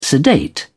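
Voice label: "sedate", British English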